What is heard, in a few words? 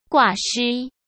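"挂失" Mandarin Chinese; hsk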